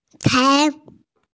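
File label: "tai2", Mandarin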